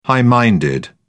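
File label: "high minded", English, British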